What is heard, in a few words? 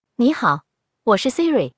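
Chinese, China; iOS 26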